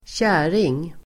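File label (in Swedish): "käring", Swedish; Uttal: [²tj'ä:ring el.²tj'är:ing]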